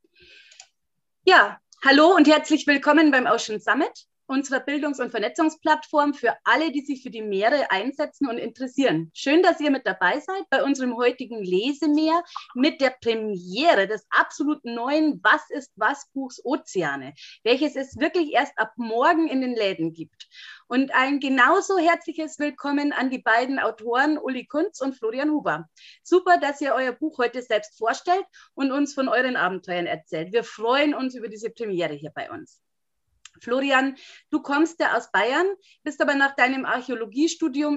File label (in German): Komplette Lesung